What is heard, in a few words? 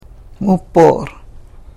"mupoor", karuk